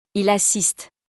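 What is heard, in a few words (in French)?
"il assiste", French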